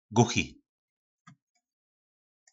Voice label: "Guchi", nářečí Po našimu; Hluchý

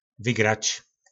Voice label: "Vygrač", nářečí Po našimu; Vyhrát

